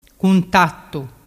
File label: "cuntattu", dialettu